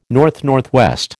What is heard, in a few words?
"NNW", American